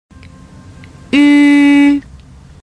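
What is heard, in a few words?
"ü" Chinese pinyin, first tone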